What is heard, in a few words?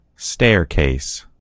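"staircase", American English; gcompris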